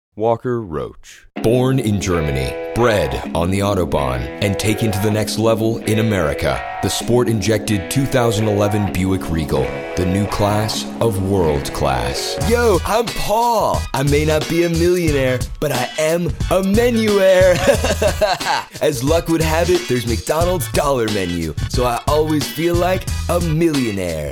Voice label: commercial